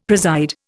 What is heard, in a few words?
"preside", Indian; Dictionary